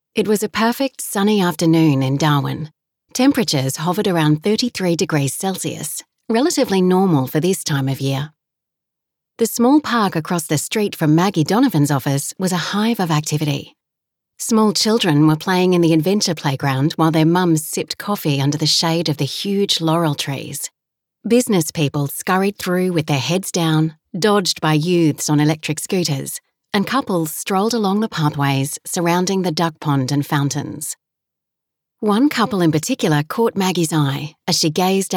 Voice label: Audiobook Sample Chapter; Audiobook Narration; Chapter 1; Slow Road to Love